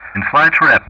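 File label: "INFLIGHTREP", English; Ka-50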